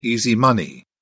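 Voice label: "easy money", British English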